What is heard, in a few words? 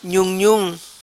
"Nhunhum", Macanese